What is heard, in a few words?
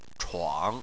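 "chuang" third tone, Chinese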